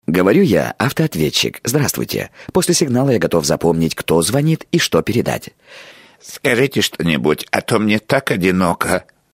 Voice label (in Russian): dlja avtootvetchika; odinokij avtootvetchik